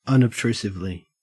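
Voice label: "unobtrusively", Australian English; pronunciations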